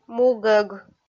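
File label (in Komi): "му гӧг", Komi, female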